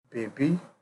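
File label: "baby", Nigerian Pidgin, male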